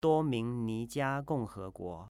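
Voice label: "Duomingnijia Gongheguo", Chinese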